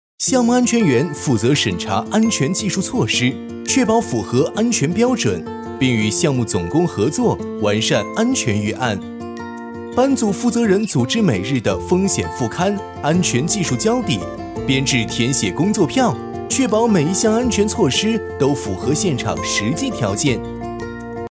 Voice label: 男; 驻队监理

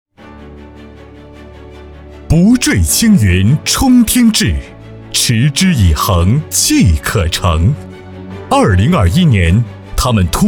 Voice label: 男 颁奖; 不坠青云冲天志

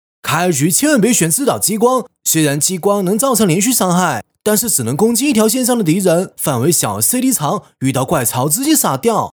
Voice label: 男 信息流 台湾腔

